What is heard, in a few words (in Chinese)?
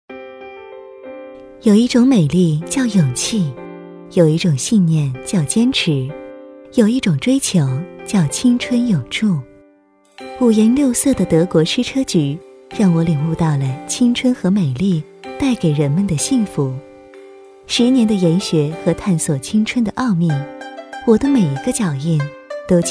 品质 温柔